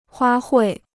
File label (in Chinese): "花卉", Chinese